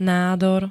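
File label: "nádor", Slovak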